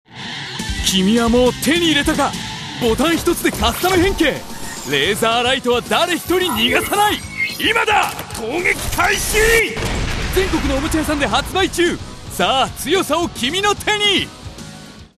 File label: Sample CM kids toy